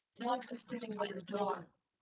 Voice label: calm anger generated; GAN for speech spectrogram